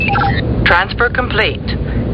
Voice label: transfer complete, VOCALS and SPOKEN WORD, FEMALE; MISC and SPOKEN WORD